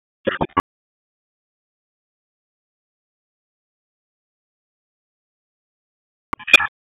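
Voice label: Raleigh Police Southeast District Tactical, p25; wake p25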